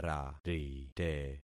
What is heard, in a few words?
"rarite", concat syllables; Affixing experiment